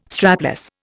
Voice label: "strapless", Indian